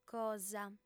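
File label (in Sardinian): "cosa", female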